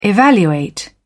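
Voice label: "evaluate", British English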